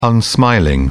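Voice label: "unsmiling", British English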